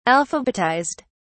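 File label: "alphabetized", British English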